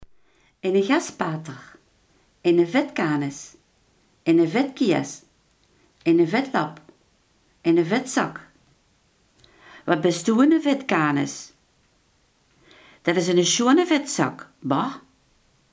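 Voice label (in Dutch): iemand die er onverzorgd uitziet, Limburgse gesproken woordenboeken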